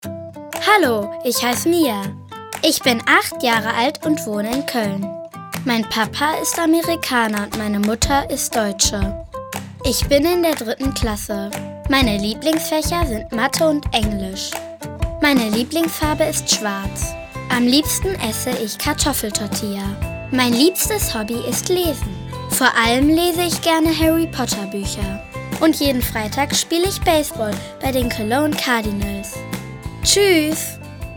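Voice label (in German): Selbstvorstellung, German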